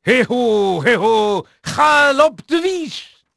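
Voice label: Dutch male, voice; Pirates Of The Caribbean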